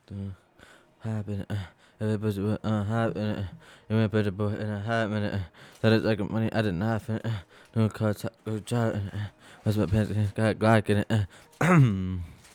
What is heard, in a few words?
recordin Mic Input; flstudio; Vocals